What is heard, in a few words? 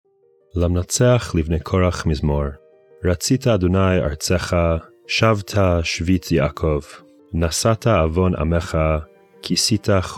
poetry and prayer psalm hebrew; Meir Soloveichik